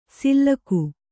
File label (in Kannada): "ಸಿಲ್ಲಕು", Kannada